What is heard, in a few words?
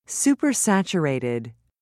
"supersaturated", en uk